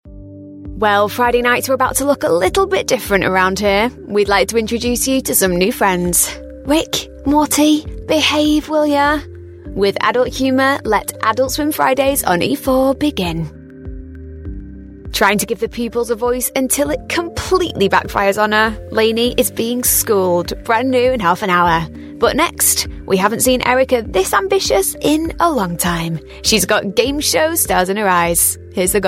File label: continuity